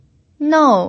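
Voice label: "nou" Mandarin Chinese, fourth tone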